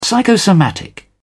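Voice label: "psychosomatic", British English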